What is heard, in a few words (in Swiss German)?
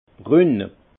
"brun", alsace; vin